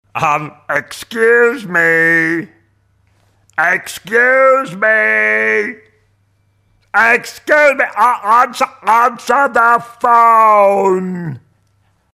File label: Excuse Meeee